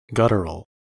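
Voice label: "guttural" US English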